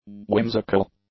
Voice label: "whimsical", english; adjectives